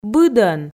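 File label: "быдӧн", Komi, female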